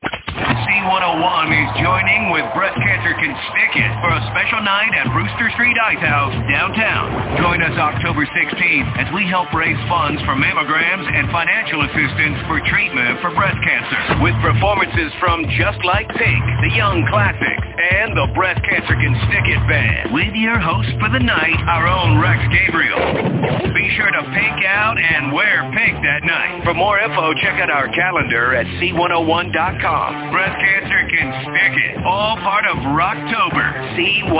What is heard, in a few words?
promo; corpus christi